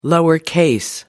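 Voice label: "lowercase", British English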